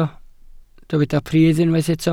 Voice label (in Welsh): "pridd" Welsh